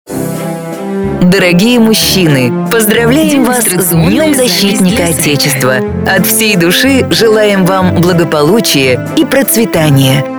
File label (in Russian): Поздравление с 23 февраля женский